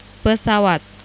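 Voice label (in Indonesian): "pesawat", Indonesian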